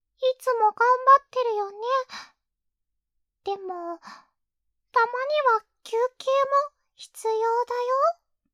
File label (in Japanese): 励ましボイス